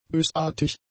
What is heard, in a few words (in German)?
"bösartig", German; adjectives